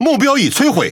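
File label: Speech Pilots Fighter Tango down, Taiwan; World Of Warships; ZH TW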